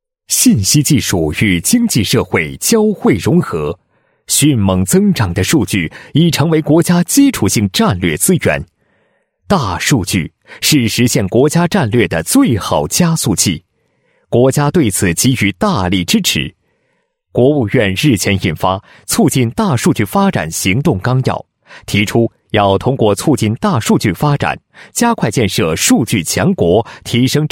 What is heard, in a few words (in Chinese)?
男 企业; 国云数据 大数据科技公司